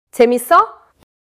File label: neutral, monologue